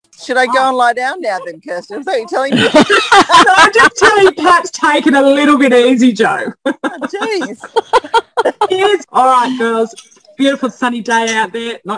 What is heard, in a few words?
Running on Air warm up chat